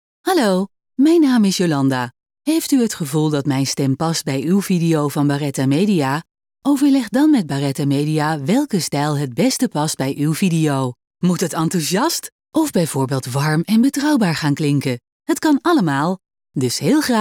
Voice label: voice-over